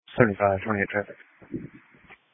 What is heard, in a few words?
Sheriff Dispatch